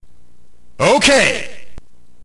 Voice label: narrator